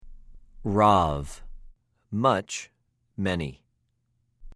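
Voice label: "רַב", Hebrew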